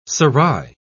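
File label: "serai", British English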